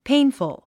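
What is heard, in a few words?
"painful", English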